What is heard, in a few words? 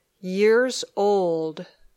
"years old", English